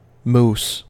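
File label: "mousse", US English; pronunciations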